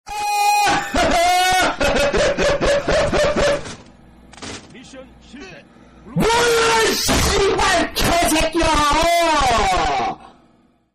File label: angry korean ringtone